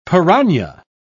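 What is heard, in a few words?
"pirana", British English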